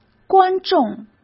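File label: "观众", Mandarin Chinese; chinese-flashcards; hsk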